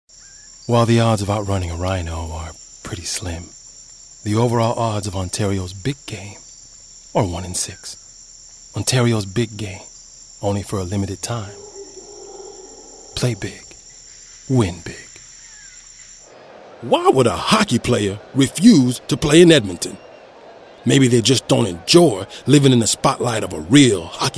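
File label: ENGLISH Voice Demo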